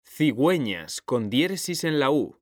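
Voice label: "cigüeñas", Spanish, male; mekanta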